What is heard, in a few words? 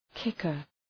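"kicker", English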